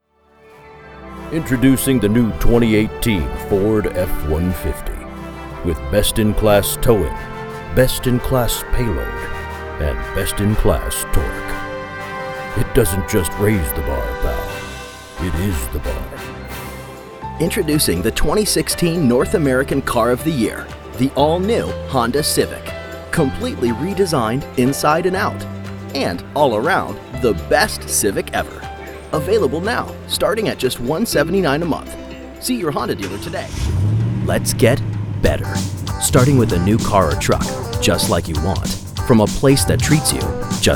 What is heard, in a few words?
Car Commercial